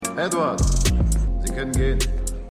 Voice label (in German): Polizist Waerter